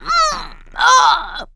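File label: choke, male; survivor; producer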